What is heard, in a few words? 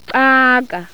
Zulu dental click